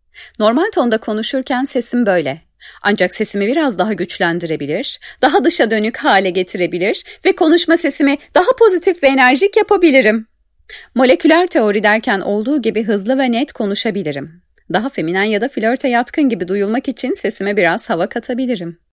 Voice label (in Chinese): 土耳其语女 外语 小语种